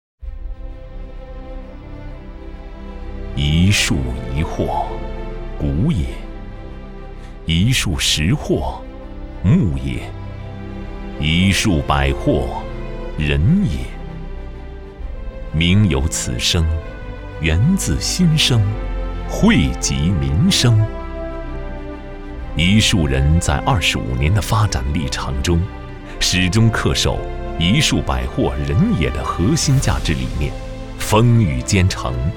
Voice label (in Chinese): 男 形象宣传; 走心企业形象片样音; 一树药业